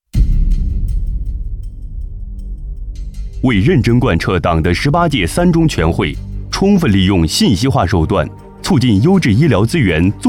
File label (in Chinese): 男, 政府解说, 大气, 卫计局; 紫云自治县卫计局打造